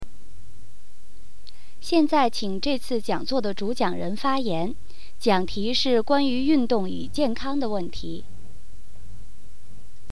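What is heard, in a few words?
Mandarin/Putonghua; 现在请这次讲座的主讲人发言，讲题是关于运动与健康的问题。; Mandarin Prompt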